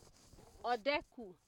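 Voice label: "odeku", Nigerian Pidgin, female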